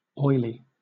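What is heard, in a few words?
"oily", British English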